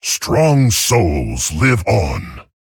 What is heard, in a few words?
Male Tauren, TRIGGER Nine Lives